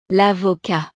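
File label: "l avocat", French; verduras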